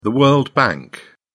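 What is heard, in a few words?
"world bank", British English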